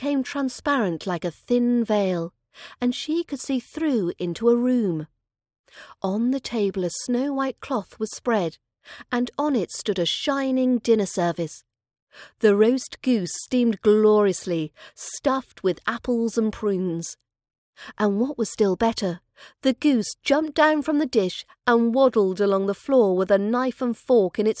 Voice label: little match girl fairytale, british accent pronunciation